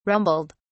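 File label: "rumbled", English, British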